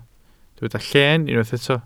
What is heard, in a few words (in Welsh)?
"lleen" Welsh